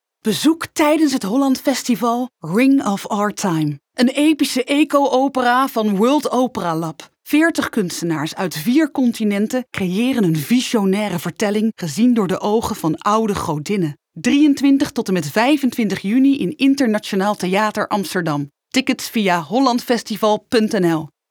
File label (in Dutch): Stemtest Commercial